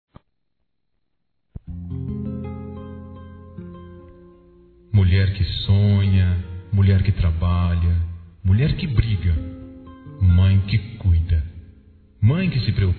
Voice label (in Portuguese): DIA DAS MÃES, Masc; Telemensagens 2017